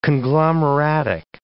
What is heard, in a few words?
"conglomeratic", English